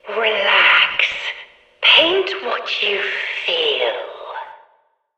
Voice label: Background Announcements